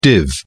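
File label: "div", British English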